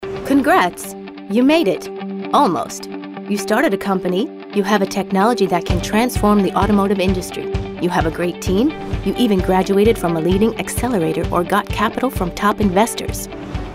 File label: female american english comercial